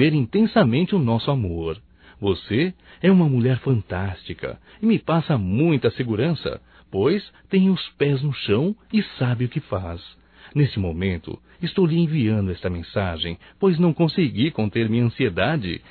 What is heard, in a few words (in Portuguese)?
ANIV Romântico (M)